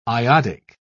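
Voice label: "iodic", British English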